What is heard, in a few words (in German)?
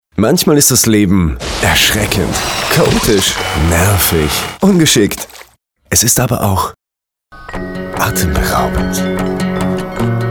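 Werbung, deutsch Männer; sprecher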